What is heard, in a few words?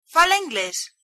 do you speak english, galician